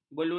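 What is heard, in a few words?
"beluluk", Malay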